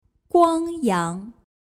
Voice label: "Guangyang", Chinese

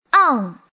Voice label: "ang4", Chinese; 중국어 복운모, 부성운모 연습

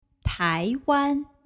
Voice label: "Taiwan", Chinese

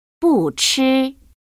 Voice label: "buchi", Chinese; 중국어 경성 연습

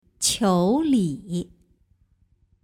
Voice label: "Qiuli", Chinese

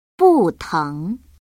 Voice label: "buteng", Chinese; 중국어 경성 연습

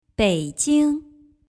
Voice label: "Beijing", Chinese

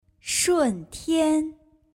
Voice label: "Shuntian", Chinese

